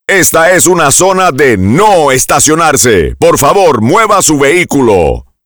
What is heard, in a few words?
No Parking, SPA